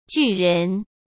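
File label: "巨人", Mandarin Chinese; chinese-flashcards; hsk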